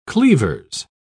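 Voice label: "cleavers", English, uk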